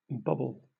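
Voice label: "bobble", English, British accent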